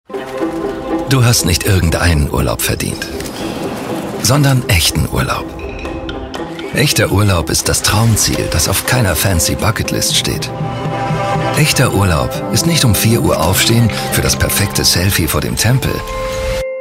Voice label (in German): Werbung, DE; Landal